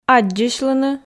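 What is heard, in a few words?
"аддзысьлыны", Komi, female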